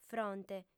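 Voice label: "fronte", female; Fàbrica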